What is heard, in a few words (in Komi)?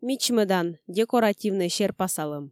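"мичмӧдан, декоративнӧй серпасалӧм", Komi, female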